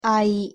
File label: "아이", Korean; pronunciation